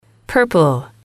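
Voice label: "purple", English, female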